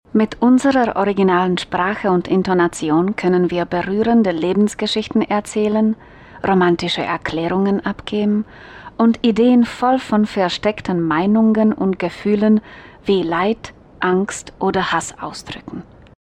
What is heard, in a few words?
Female Czech German, czech-female